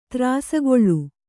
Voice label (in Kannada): "ತ್ರಾಸಗೊಳ್ಳು", Kannada